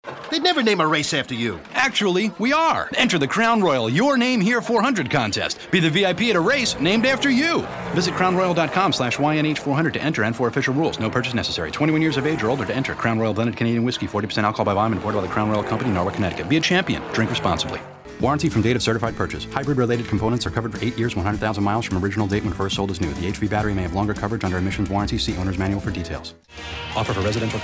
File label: Legal, Voiceover; Legal Demo